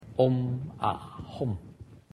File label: Om Ah Hum, mantra